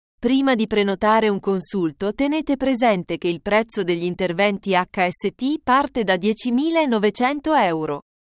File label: Vocal italian